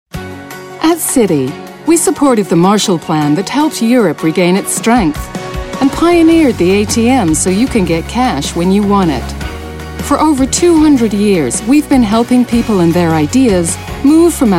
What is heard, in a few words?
American Narration